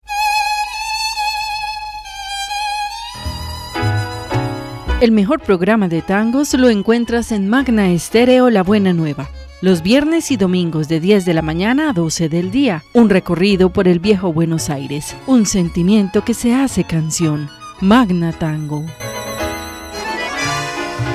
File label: PROMO MAGNA TANGO